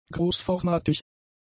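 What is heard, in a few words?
"großformatig", German; adjectives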